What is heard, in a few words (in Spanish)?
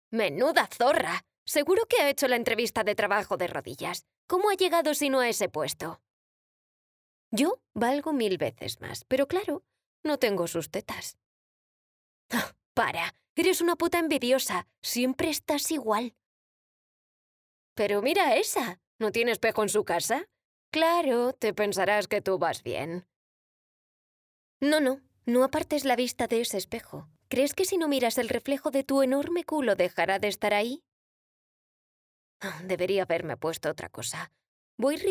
Audiolibro; Libro Blanco Mujer; LOFT VOICES